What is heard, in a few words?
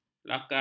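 "lakar", Malay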